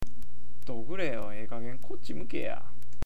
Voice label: "dokure", awaji-ben

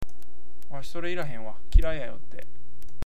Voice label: "yotte", awaji-ben

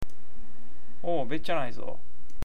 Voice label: "betyanai", awaji ben

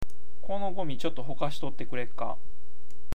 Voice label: "hokasu", awaji-ben

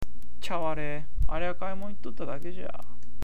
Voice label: "chaware", awaji-ben

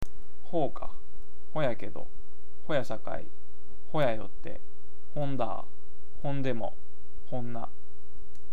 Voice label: "houka", awaji ben

